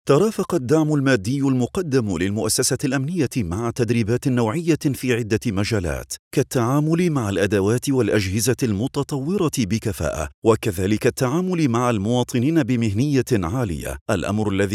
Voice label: arabic